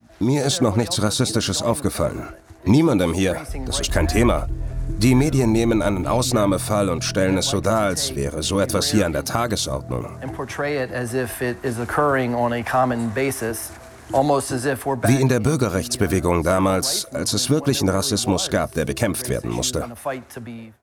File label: Voice Over; Divided States